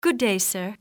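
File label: good day sir, english, female; XBOX; civ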